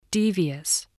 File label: "devious", english spelling